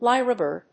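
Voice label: "lyrebird", English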